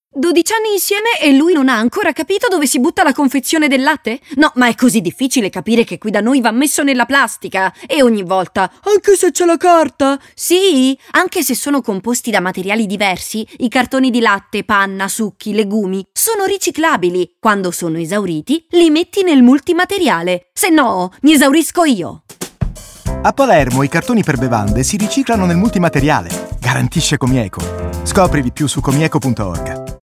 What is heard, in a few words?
Radio Spot; COMIECO; Palermo; campagna comieco sui cartoni alimentari secondo sottosopra